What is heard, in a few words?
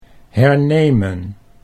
"hernemen", Dutch